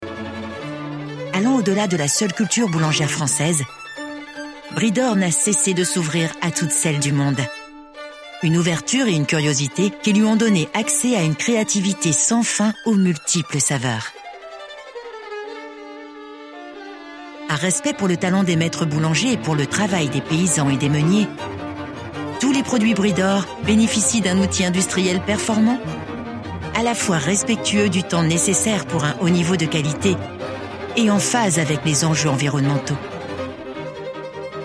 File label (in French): Convention Boulangere evocation historique, comédienne voix off; Bridor